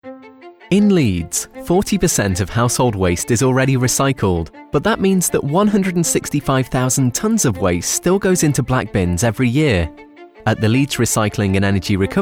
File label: Corporate Narration; professional English voice talent with a neutral or northern UK accent